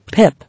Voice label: "Pip", English

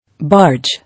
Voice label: "Barge", English

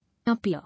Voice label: "Appear", English

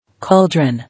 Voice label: "Cauldron", English

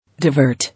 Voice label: "Divert", English

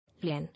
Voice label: "Lane", English

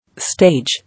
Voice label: "Stage", English

